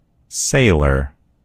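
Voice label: "sailor", American English; gcompris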